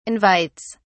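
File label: "invites", British English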